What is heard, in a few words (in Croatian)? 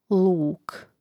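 "luk", Croatian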